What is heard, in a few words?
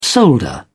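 "solder", English, British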